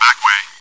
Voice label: chitchat, bot, voice commands; svencoop

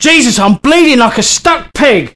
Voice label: Medic, male; svencoop

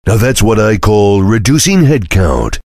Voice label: cheer; svencoop; voice commands; Duke

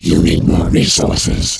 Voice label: alien more; hud

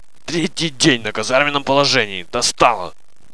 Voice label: monologue; svencoop; paranoia; officer

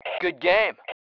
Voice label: GOOD GAME, male

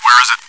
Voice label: chitchat; svencoop

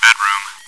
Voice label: chitchat, bot, voice commands; svencoop

